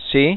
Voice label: "Yes", es, Adult, Male